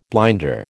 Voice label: "blinder", American

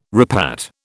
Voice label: "repat", British

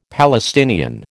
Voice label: "Palestinian", American

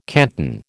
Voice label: "canton", American

